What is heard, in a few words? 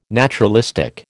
"naturalistic", American